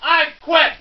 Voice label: I Quit, Cheesy Lo-Fi Sound Effects